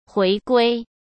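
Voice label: "回归", Mandarin Chinese; chinese flashcards; hsk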